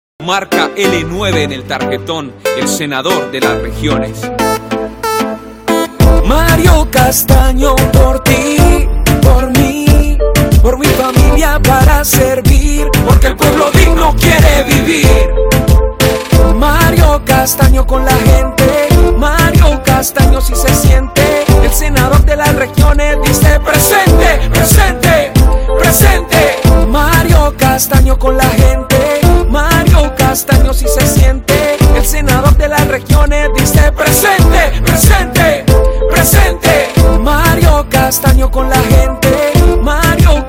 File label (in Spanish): publicidad